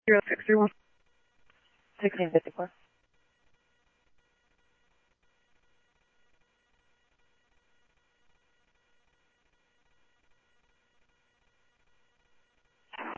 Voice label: Simulcast Police Dep, scanner audio trunk; Mesa Simulcast; MESA PD Superstn; MesaPoliceDep

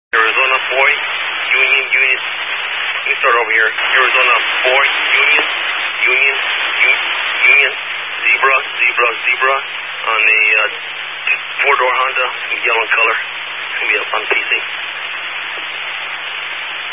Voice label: Highway Patrol, Phoenix Metro West Area, scanner

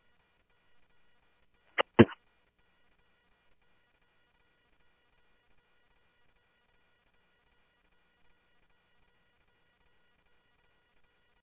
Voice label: Police, scanner audio trunk; H-Scottsdale; SCTSDLE PD - PD1 ScottsdalePolice

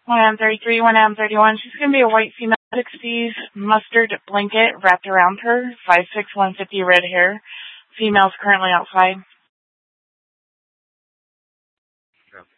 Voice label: Central Dispatch, Mesa PD; TOPAZ Regional Wireless Cooperative (TRWC) - Mesa Simulcast

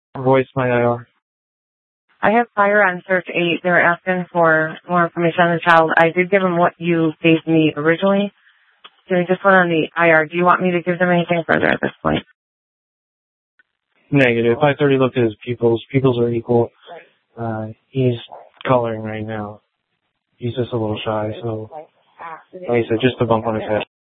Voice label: Sheriff, scanner

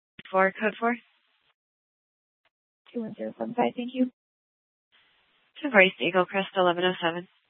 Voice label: Superstition Dispatch, Mesa PD; TOPAZ Regional Wireless Cooperative (TRWC) - Mesa Simulcast; 4 Superstition Dispatch

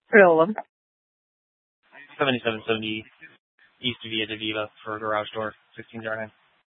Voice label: Dispatch; Scottsdale

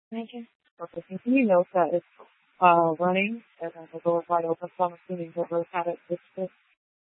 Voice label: Tempe PD, scanner; Rgnl Wireless Co-op (RWC)

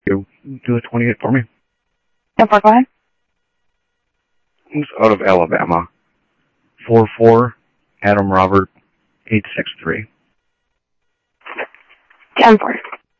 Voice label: Sheriff scanner audio

